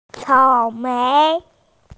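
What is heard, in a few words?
"cao3mei2", Mandarin Chinese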